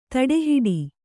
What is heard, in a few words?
"ತಡೆಹಿಡಿ", kannada